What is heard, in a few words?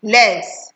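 "less", Nigerian Pidgin, female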